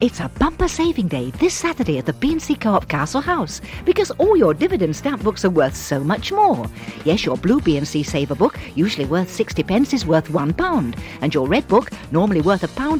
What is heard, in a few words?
Radio Advert, Coop Non Food; Radio Hallam; B & C